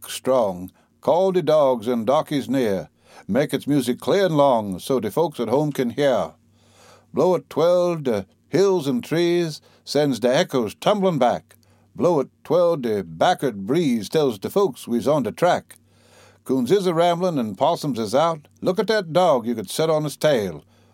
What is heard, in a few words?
hunting song, humour and dialect